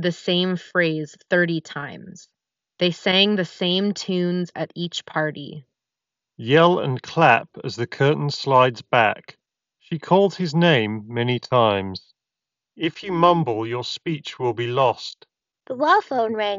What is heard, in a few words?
Ideal microphone sample; Apple Airpods Pro 2nd generation